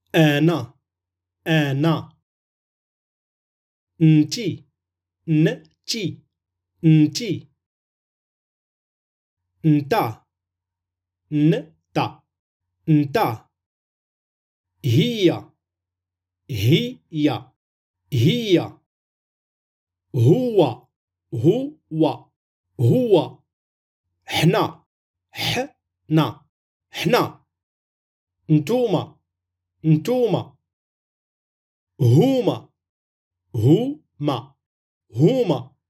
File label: moroccan darija subject pronouns with pronunciation